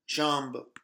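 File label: "jambe", French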